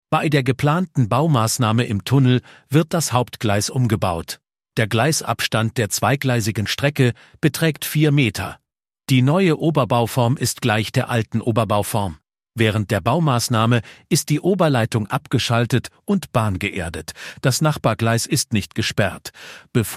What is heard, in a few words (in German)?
spricht E Learning